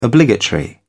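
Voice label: "obligatory", British English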